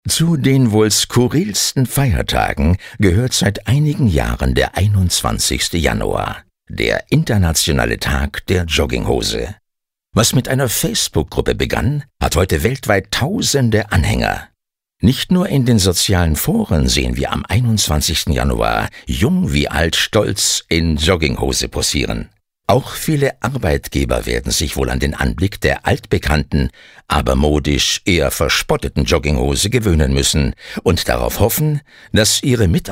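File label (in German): commentary, Freundlich; Feiertag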